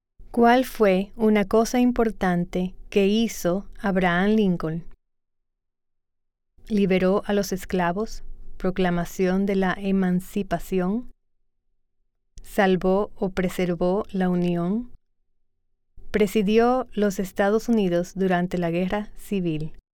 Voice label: Spanish